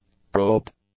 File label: "probed", english; adjectives